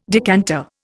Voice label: "decanter", Indian

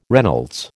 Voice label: "Reynolds", American

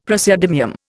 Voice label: "praseodymium", Indian; Dictionary

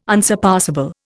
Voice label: "unsurpassable", Indian

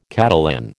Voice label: "Catalan", American

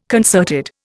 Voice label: "concerted", Indian

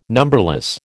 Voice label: "numberless", American

